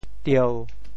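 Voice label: "tieu1", Teochew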